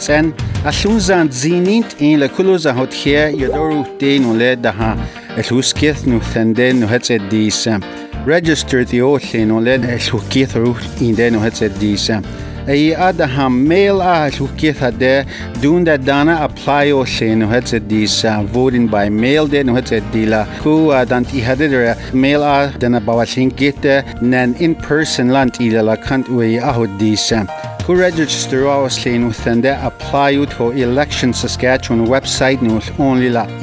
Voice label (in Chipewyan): radio ad Dene